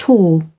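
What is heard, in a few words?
"tall", British English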